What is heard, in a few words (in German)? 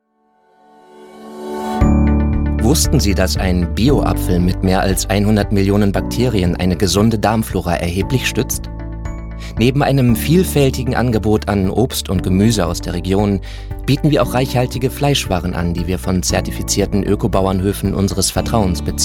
imagefilm